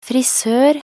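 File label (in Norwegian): "frisør", Norwegian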